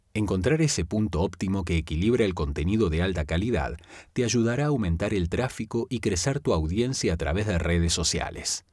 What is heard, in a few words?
neutral